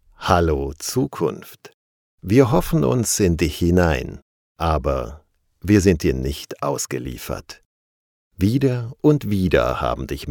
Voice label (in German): Werbung